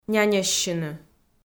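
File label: "няньӧссьыны", Komi, female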